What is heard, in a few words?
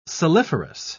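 "saliferous", British English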